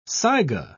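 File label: "saiga", British English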